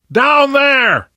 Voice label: context modifier loud, left4dead2 survivor voice namvet; source engine